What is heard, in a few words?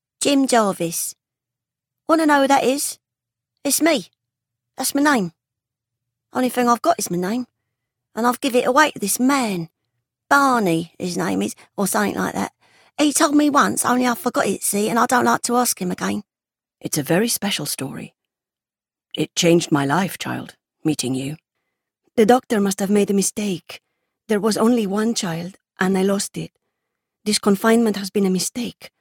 Character Reel